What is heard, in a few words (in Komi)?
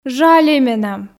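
"жальӧй менам", Komi, female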